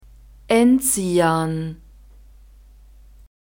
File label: "enzian", German; nouns gender feminine